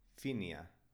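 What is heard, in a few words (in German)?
"finia", baskische Mädchennamen, Aussprache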